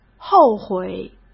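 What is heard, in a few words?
"后悔", Mandarin Chinese; hsk; chinese-flashcards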